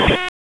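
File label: Raleigh Police Northeast District Tactical, p25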